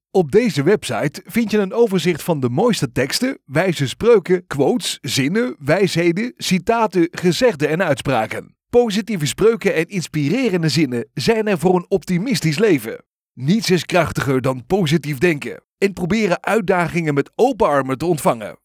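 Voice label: Natural Speak Inspiring Native Dutch, male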